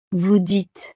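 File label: "vous dites", French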